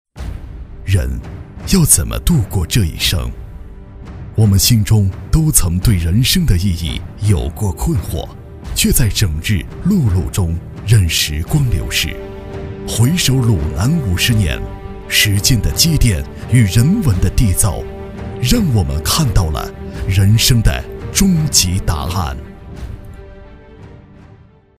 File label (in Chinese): 男, 人物专题片